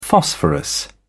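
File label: "phosphorus", British English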